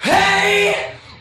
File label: "hey", Voice